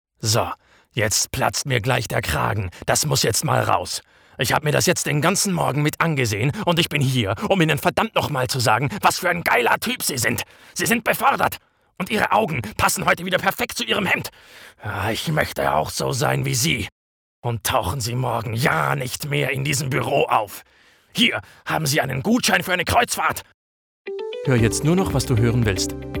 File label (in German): Werbung Musik; Deezer